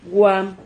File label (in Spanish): "guam", Silabas